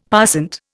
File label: "passant", Indian; Dictionary